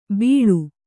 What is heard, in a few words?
"ಬೀಳು", Kannada; bīḷu